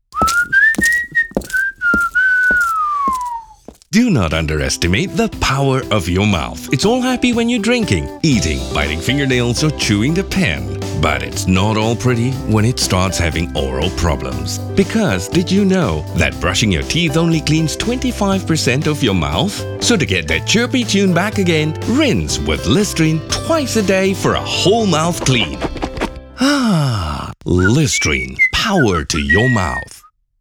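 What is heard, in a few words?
English; LISTERINE THEMATIC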